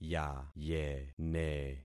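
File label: "yayene", concatenated syllables; Affixing experiment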